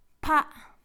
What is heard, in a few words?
pig, kham